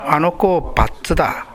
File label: "battu", minamiyamagata